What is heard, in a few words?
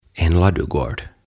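"En Ladugård", Swedish, "Navetta", Finnish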